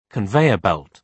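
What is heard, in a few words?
"conveyor belt", British English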